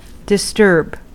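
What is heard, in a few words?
"disturb", US English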